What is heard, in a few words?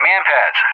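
"MANPADS", English; JTAC; AirDefence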